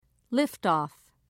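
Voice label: "liftoff", British English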